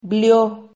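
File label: "blio", Spanish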